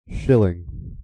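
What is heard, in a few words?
"shilling", US English; pronunciations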